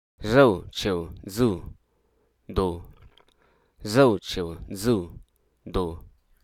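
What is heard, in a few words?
Hruso Aka; comply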